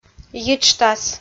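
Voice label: "йиджтас" Komi, female; audio dict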